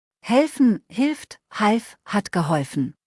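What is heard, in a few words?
"helfen", German; verben kurzliste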